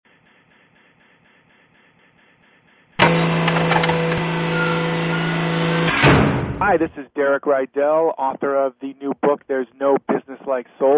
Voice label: bbs radio promo